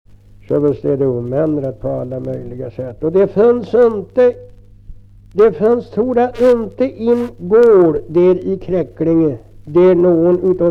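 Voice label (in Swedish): Kräklinge Närke, dialekter; Folkminnen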